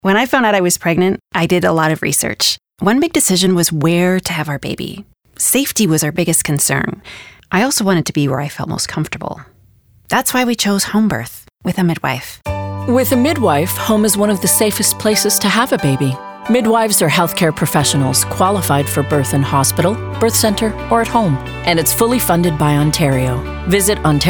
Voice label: Radio spot